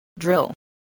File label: "drill", English